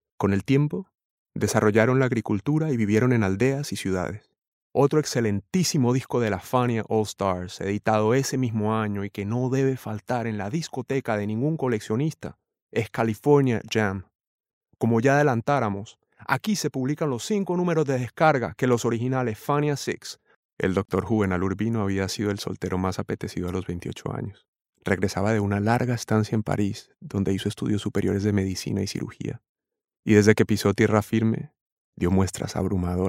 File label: Spanish Latin